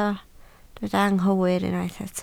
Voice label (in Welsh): "anghywir", Welsh